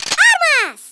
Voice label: collect, Speech Portuguese; Worms Armageddon

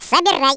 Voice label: collect, Russian

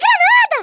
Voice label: grenade, Speech Portuguese; Worms Armageddon

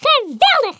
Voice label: "EXCELLENT", Dutch; Worms Armageddon

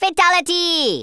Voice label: "fatality", Rasta

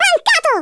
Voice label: MISSED, Speech Italian; Worms Armageddon

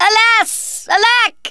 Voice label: "oh dear", Thespian; Worms Armageddon

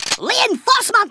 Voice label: "collect", Kamikaze; Worms Armageddon

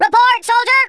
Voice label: what the, Drill Sergeant; Worms Armageddon

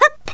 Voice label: jump, Hungarian; Worms Armageddon

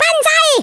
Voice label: "bungee", German; Worms Armageddon

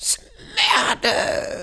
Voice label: "Ouch", Danish; Worms Armageddon; Danish-Pyrus

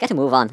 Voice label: "come on then", Irish; Worms Armageddon

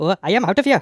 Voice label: "oh dear", Speech; Worms Armageddon; The Raj

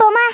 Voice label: BUMMER, Speech, Scouser

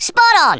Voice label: "Perfect", Australian; Worms Armageddon

